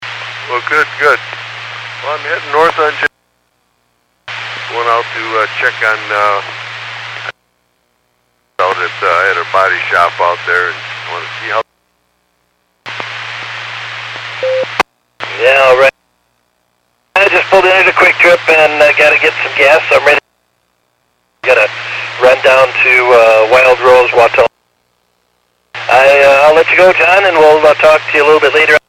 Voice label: Skywarn 146.67; Stevens Point